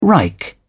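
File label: "reich", British English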